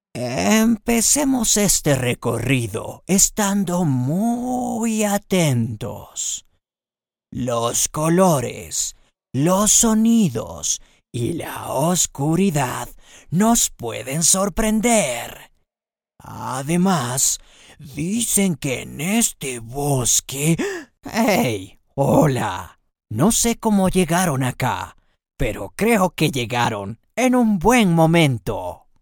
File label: AudioTour Character